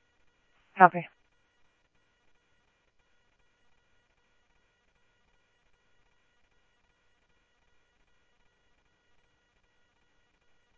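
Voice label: Mesa Police Department, scanner audio trunk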